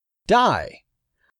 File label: "die", English; 3000 words